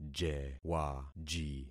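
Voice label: "jewaji", concatenated syllables; Affixing experiment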